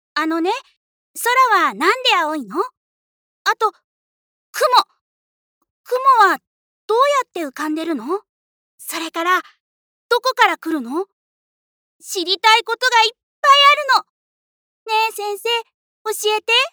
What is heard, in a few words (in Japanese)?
女の子